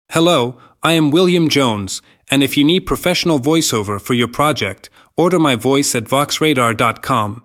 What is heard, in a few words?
USA